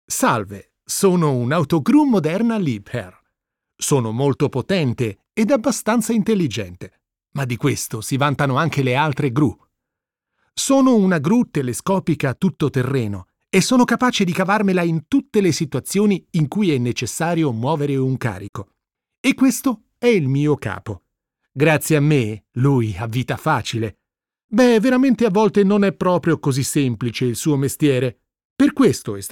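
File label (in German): Italian, Werbung Dynamisch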